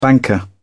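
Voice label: "banker", British English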